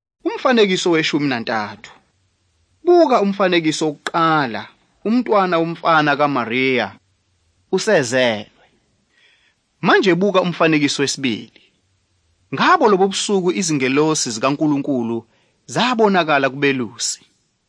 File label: zulu bible; good news